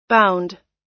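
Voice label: "bound", US English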